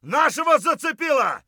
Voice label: friendly hit, voice; Escape from Tarkov

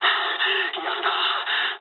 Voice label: jp trick, speech jp; War Thunder

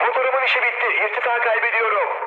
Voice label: damaged engine, mood high, speech tur

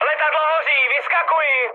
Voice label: on fire, speech cze, mood high; War Thunder

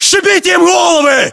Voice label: order action boost, ru; localized english

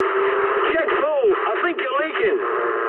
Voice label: eng tank damaged mood high, speech eng

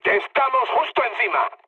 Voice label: near waypoint, mood med, speech; War Thunder

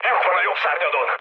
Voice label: right wing, speech hun; War Thunder

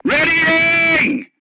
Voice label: voice message reloading, crew tanks portuguese

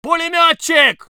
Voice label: voice sov infantry

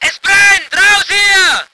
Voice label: voice ger vehicle

